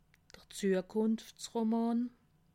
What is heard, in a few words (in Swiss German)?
"zukunftsroman", alsace